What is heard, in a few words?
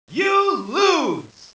narration; kof